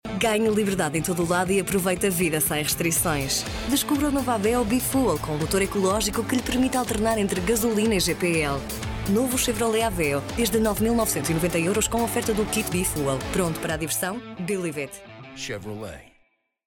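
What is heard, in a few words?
Portuguese, female, commercial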